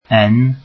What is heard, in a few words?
welsh alphabet n